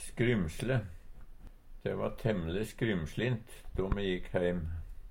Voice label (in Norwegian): "skrymsle", Numedalsmål; Vêr og føre; Adjektiv